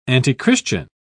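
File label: "antichristian", English, British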